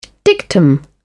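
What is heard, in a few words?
"dictum", British English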